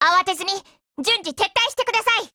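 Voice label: retreat, Japanese; 人物：少女前线:Saiga 308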